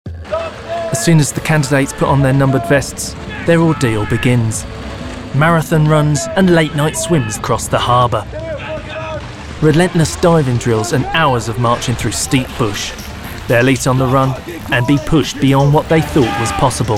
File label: NARRATIVE REEL